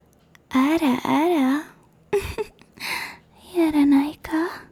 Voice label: ASMR ara ara, yaranaika